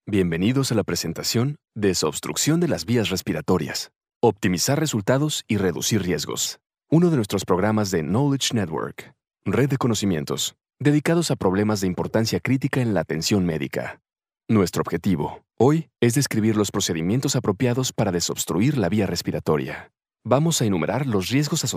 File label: latin spanish male medical